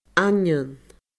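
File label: "aindeoin", Scottish Gaelic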